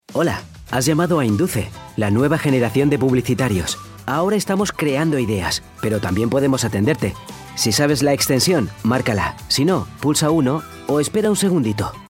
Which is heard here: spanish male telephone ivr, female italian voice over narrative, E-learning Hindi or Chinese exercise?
spanish male telephone ivr